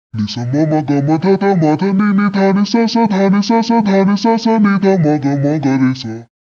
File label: pitch shift voice; serato pitch n time le